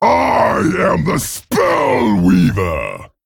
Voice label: Male Dragon Play